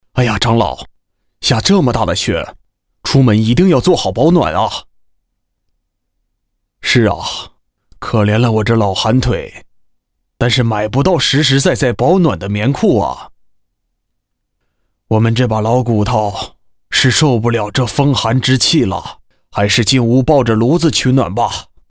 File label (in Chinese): 老人模仿 男 对话